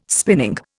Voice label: "spinning", Indian

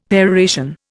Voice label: "peroration", Indian; Dictionary

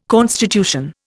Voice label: "constitution", Indian

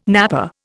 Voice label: "napper", Indian

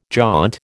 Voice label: "jaunt", American

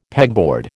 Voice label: "pegboard", American

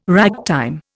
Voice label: "ragtime", Indian

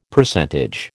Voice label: "Percentage", American

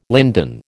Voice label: "linden", American

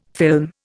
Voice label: "film", Indian

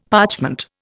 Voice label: "parchment", Indian; Dictionary

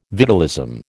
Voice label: "vitalism", American

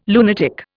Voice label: "lunatic", Indian

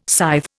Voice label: "scythe", Indian

